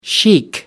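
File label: "sheik", British English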